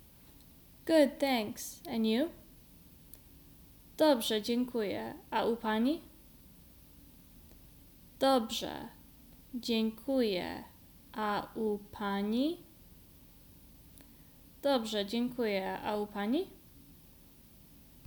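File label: Good thanks and you, Polish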